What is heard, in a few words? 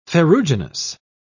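"ferruginous", British English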